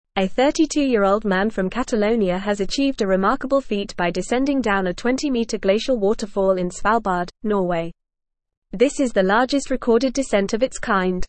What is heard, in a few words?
English Advanced FAST Reading; English Newsroom; Record breaking kayaker descends largest ice waterfall